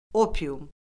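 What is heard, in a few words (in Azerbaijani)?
"opium", Azerbaijani; Orfoqrafiya Lüğəti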